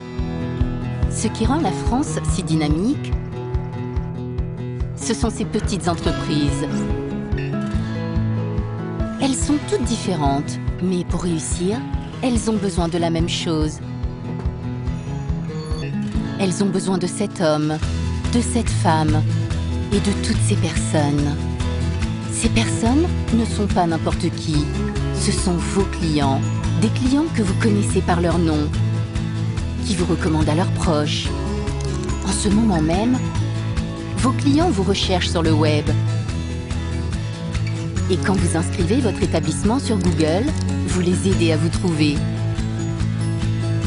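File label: French; Google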